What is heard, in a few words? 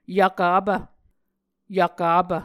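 "yvkapv", Muscogee word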